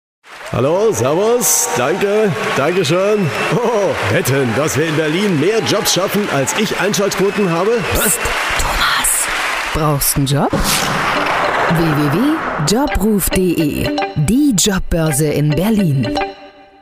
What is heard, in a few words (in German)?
Spot; Werbespot Thomas Gottschalk; Radiowerbung